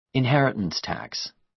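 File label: "inheritance tax", American English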